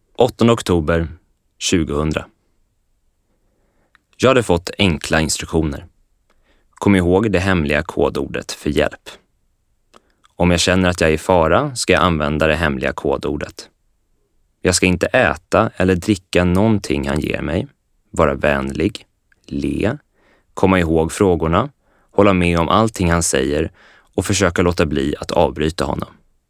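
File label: Swedish audiobook male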